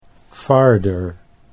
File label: "farther", Dutch English